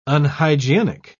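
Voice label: "unhygienic", British English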